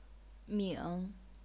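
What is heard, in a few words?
"ming", third tone, chinese pinyin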